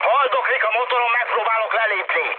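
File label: Hungarian damaged engine mood high, speech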